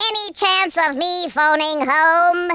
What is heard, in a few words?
incoming, speech, Alien; Worms3D